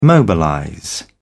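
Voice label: "mobilize", British English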